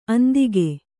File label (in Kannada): "ಅಂದಿಗ", kannada